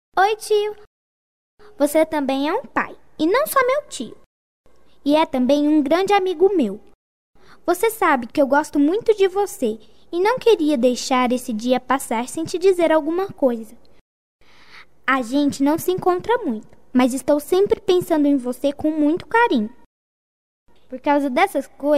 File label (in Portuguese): dp tio infantil fem, dia dos pais para tio voz feminina infantil